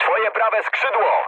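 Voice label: Polish right wing mood medium; War Thunder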